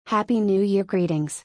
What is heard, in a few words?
"Happy New Year greetings", English